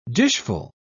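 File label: "dishful", British English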